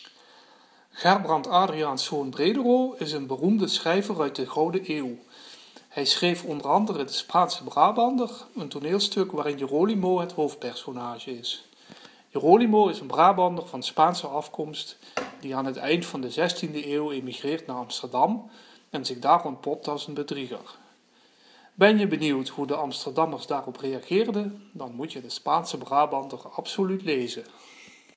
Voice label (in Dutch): Fragment Limburgs